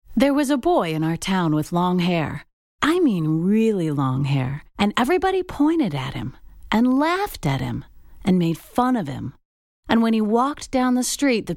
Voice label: narration technical